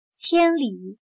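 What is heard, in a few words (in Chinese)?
"千里" Mandarin Chinese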